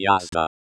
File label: "jazda", espeak